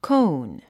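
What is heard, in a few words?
"cone", English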